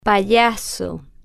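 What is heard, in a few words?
"payaso", Spanish, female, slow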